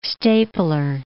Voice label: "stapler", English